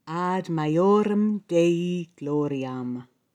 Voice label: ad majorem, recitations; Ecclesiastical Pronunciation; Ad majorem dei gloriam; For the greater glory of God